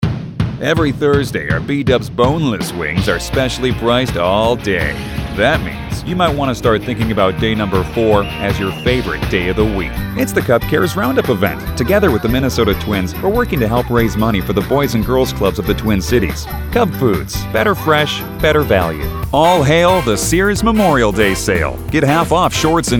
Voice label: commercial